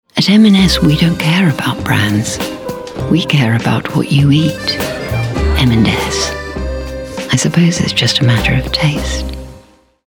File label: Commercial